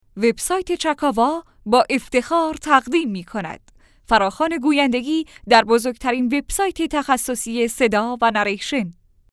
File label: epic, female narrators; english